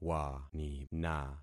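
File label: "wanina", concatenated syllables; Affixing experiment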